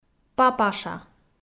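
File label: "папаша", Komi, female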